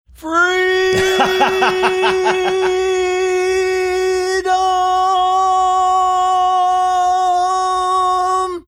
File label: drawn out "freedom"